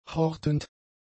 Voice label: "hortend", German; adjectives